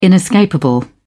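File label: "inescapable", British English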